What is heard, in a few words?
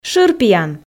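"шырпиян", Komi, female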